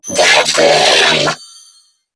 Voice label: Player Spotted Grineer Female; en; New War; Narmer Grineer

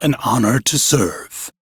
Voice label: Crew Greet, Male; Warframe; Railjack Crew; Arbiters Hexis

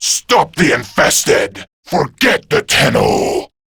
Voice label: Grineer Male en; InfestedIntroQuest; Intel Inf Released; Warframe

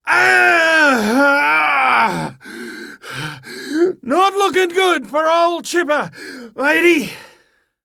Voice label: Downed, en, Dialog Barks Battle; Warframe; Chipper

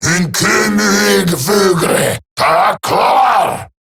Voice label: Cover Me Eximus Grineer Male; Battle Barks

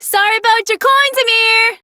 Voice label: Idle Barks, en; Aoi

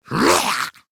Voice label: Attack Effort Ghoul, en; Grineer Ghoul Expired; Battle Barks

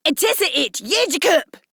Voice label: Player Spotted, Female; en; Modular Corpus; Battle Barks

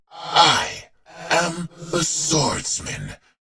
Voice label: Patrol, en; Warframe; Battle; Dax; DaxKnight

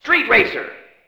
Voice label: Arcade Voices; Warframe; Ambience; Nineteen Ninety Nine

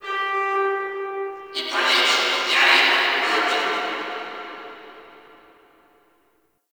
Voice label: Guild Announcer; Warframe

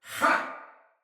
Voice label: Attack Soldier Male; Sinecure Soldier Male A

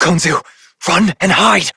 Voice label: Operator Voice, en; New War; Cetus Rescue

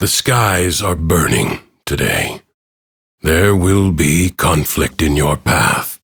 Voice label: Ambient Bark Angry; Duviri; Teshin

